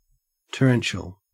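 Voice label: "torrential", Australian English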